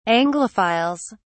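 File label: "anglophiles", British English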